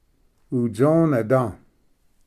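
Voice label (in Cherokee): "utsoneda", Cherokee word, male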